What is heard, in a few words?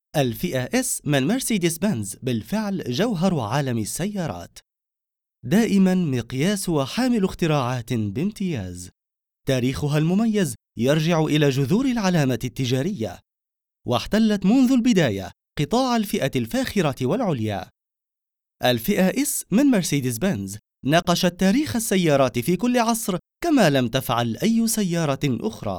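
arabic male commercial; voice demos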